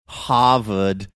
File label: Harvard odd Boston accent